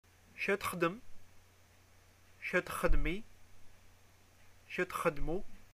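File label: what do you do, Algerian Arabic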